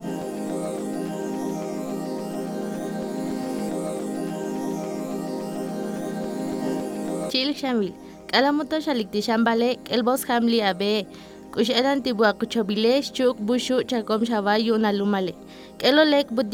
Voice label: TSOTSIL